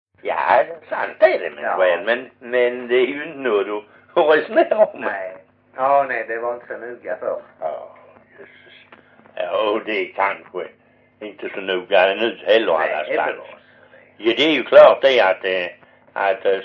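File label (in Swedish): Perstorp Skåne, dialekter, svenska sagor; Folkminnen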